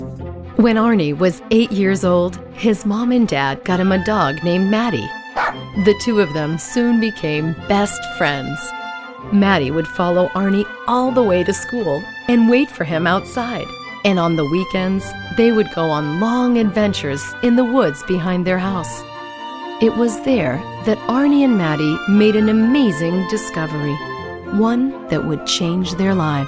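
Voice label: English Narration Audiobook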